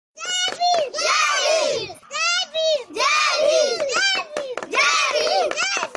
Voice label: Baby Voice Notification; ringtone; Jay Bhim

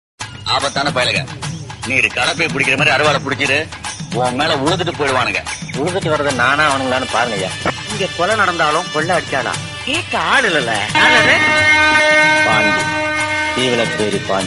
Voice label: Seevalaperi Pandi Dialogues, Tamil; Ringtone

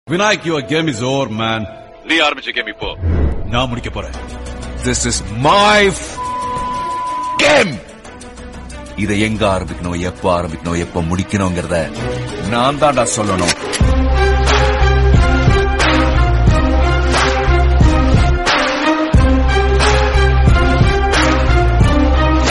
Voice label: Dialogue Tamil South Indian; Mankatha

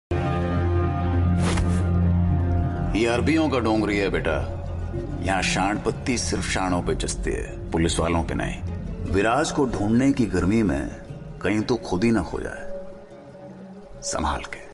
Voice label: Dialouge Hindi

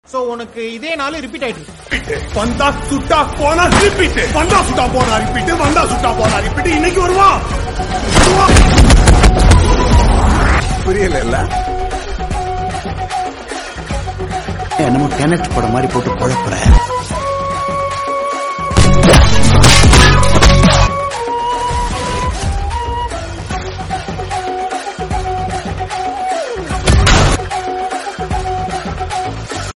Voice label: Dialogue Tamil South Indian; Manadu; Simbu

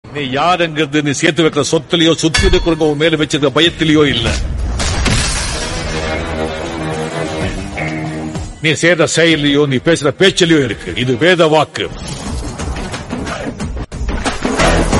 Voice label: Dialogue Tamil South Indian; Yaman; Annaatthe

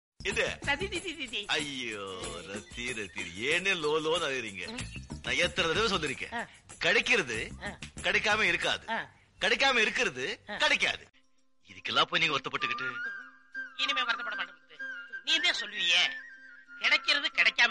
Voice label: Dialogue Tamil; Muthu; Thalaivar

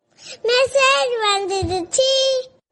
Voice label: Message Vanthuruchi Baby Sound Notification; ringtone

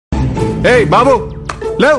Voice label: Tamil Dialogue; ringtone; Dj Tillu

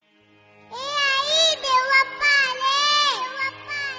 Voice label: Ae Aai Dev Bappa Aale Baby Voice Notification

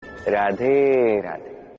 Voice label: Radhe Radhe Krishna Message Tone

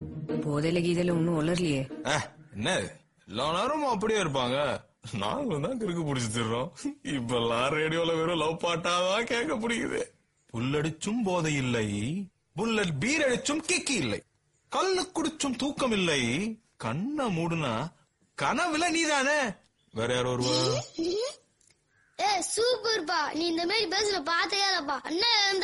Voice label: Dialogue, Tamil, South Indian; Paruthiveeran

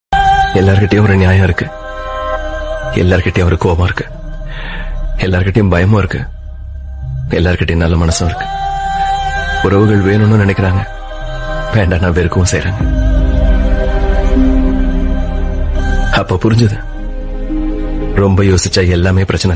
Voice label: Tamil Sentiment Dialogue South Indian

